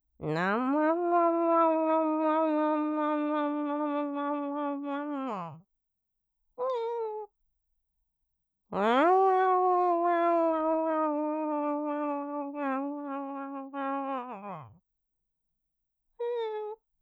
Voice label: Talking cat・Sad cat(説教猫); 猫ミ〇ム音声だニャン！